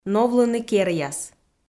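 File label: "новлыны керъяс", Komi-Zyrian, female; kpv audio dict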